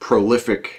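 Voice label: "prolific", US English; pronunciations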